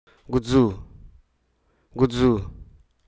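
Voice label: Hruso Aka; credit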